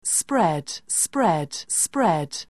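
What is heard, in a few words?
"spread", English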